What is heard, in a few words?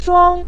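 "shuang", Mandarin Chinese, neutral tone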